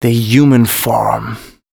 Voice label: male, spoken hooks; Mutekki Media; Dance Ultra Voice VOL-1